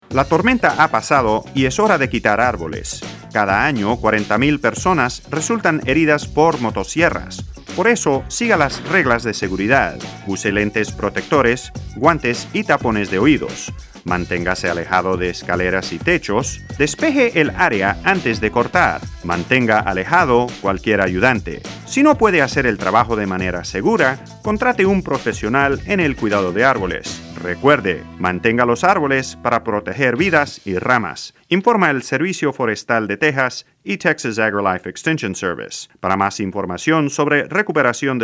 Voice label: Chainsaw Spanish